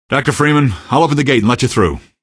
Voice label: open gate, male; Garry's Mod; hl2; odessa